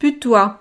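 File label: "putois", French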